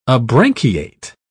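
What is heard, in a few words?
"abranchiate", English, British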